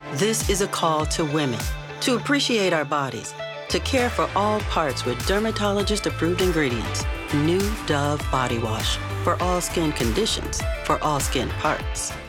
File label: Commercial Body Wash; Dove